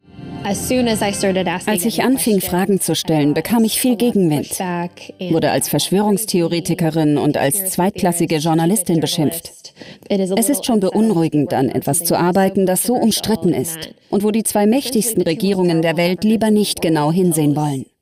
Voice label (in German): voiceover Doku; zdfinfo covid19